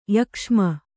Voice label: "ಯಕ್ಷ್ಮ", Kannada; yakṣma; noun

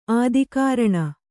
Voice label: "ಆದಿಕಾರಣ", Kannada

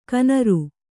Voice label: "ಕಣ್ಣುರಿ", Kannada

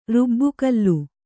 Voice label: "ರುಬ್ಬುಗಲ್ಲು", Kannada

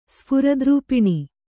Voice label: "ಸ್ಫುರದ್ರೂಪಿಣಿ", Kannada

